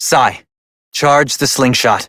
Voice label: Operator Voice; New War; Torpedo